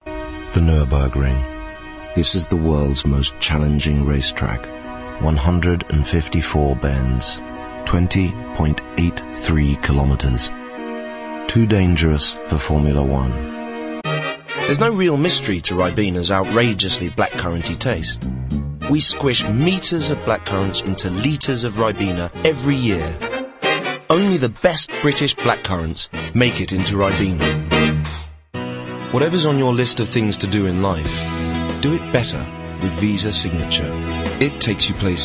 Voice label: Commercial